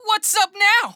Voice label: voice sample EN; Pit; SSB4